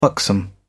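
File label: "buxom", English, British English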